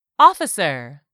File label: "OFFICER", English; 3000 words